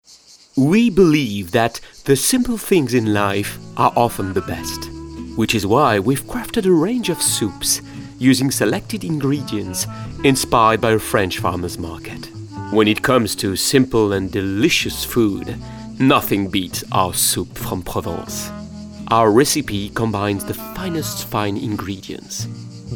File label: French accent; French soup